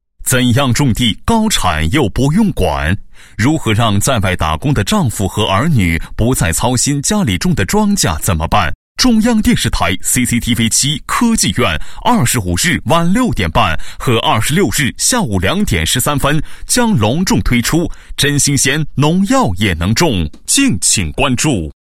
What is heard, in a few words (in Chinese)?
男3-广告, 国语男声配音; 科技苑